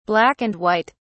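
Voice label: "black and white", British English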